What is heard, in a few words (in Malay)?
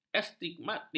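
"astigmatik", Malay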